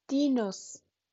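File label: "Nuss", German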